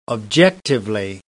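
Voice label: "objectively", British English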